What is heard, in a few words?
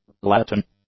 "Latin", English; nouns